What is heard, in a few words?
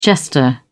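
"jester", British English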